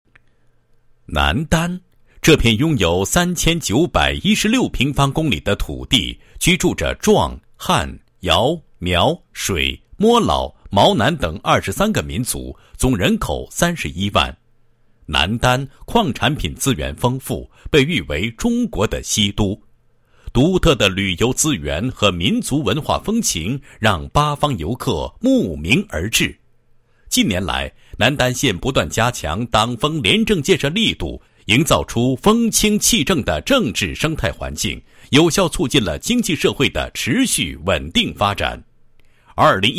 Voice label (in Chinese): 男 廉政 纠“四风”治腐败正党风政风, 配音案例